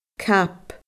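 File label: "cab", Scottish Gaelic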